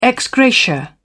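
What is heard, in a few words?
"ex gratia", British English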